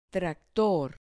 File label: "tractor", Spanish